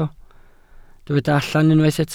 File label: "allan", Welsh